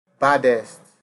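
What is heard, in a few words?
"baddest", Nigerian Pidgin, male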